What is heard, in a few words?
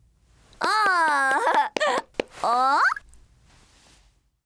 FEMALE Emotion